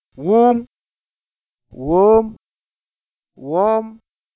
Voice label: woom contrast, Wolof